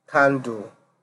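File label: "candle", Nigerian Pidgin, male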